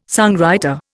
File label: "songwriter", Indian